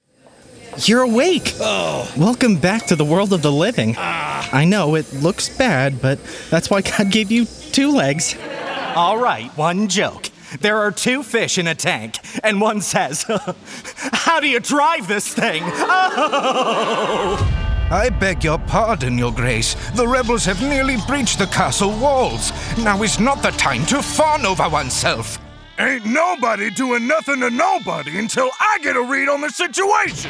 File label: Animation, Voice Actor